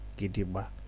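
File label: "gidigba", Nigerian Pidgin, male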